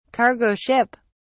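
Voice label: "cargo ship", British English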